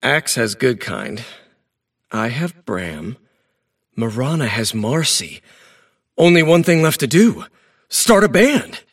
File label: monologue; davion; dota